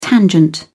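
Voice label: "tangent", British English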